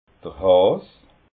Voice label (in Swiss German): "has", alsace